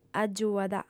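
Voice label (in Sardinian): "agiuat", lingua sarda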